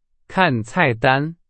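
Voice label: Chinese, example sentence; hsk2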